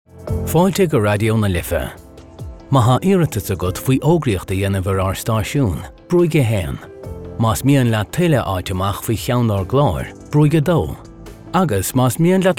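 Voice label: Gaelic, male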